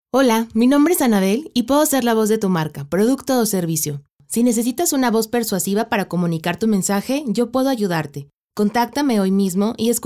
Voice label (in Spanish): Locutora